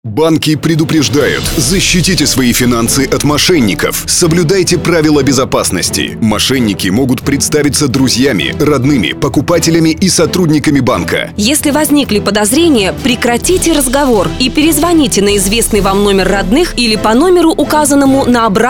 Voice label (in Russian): Аудиоролик